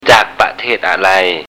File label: "jaak patit" array, Thai